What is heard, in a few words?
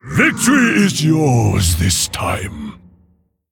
Male Mogu Concede